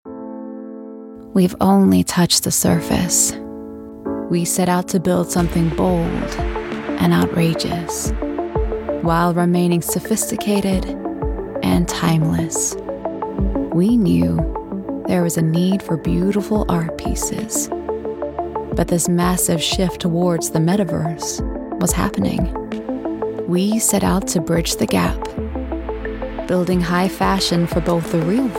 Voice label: new zealand voiceover, events

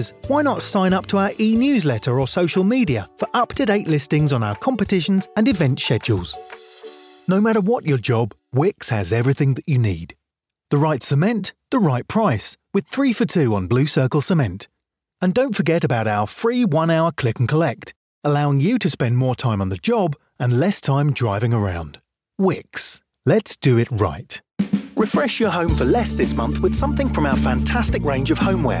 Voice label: in-store announcements

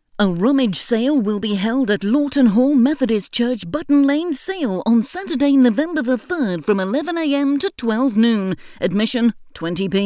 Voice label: news reader parody; ott

